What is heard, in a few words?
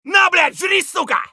scav battlecry; eft